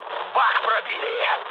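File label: tank damaged, mood low, Russian; War Thunder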